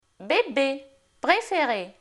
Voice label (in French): "bébé" "préférer", francais, Voyelles; Phonetique; Lingvo